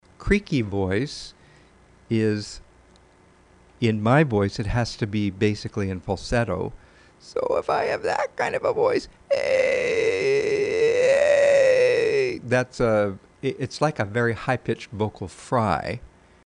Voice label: Creaky voice